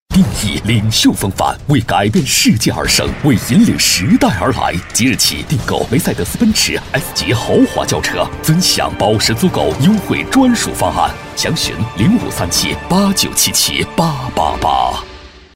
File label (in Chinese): 广告 激情, peiyin; 梅赛德斯